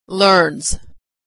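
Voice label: "learns", American English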